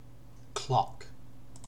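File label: "clock", British English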